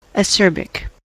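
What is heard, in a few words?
"ascerbic", English, US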